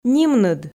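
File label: "нимныд", Komi, female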